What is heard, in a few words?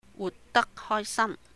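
"wuht dàk hòi sàm", Cantonese; to live happily; 活得開心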